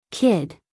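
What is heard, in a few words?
"kid", American English, female; everyone-can-use-english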